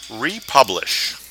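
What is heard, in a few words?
"republish", US English; pronunciations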